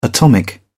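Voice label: "atomic", English, uk British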